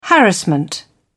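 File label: "harassment", British English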